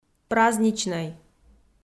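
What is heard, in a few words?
"праздничнӧй", Komi, female; kpv audio dict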